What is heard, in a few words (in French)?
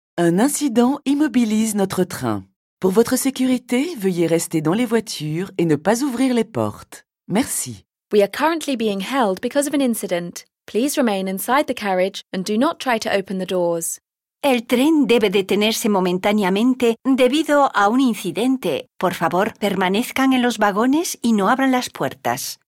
RER B new arret voie, annonces a bord des trains; nouvelles annonces a bord des trains